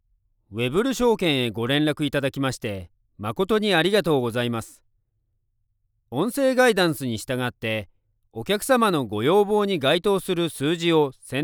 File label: japanese ivr; Je suis un acteur/artiste vocal japonais basé à New York.